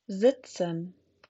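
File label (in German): "sitzen", German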